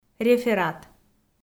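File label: "реферат", Komi, female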